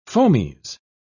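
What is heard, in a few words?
"fomes", British English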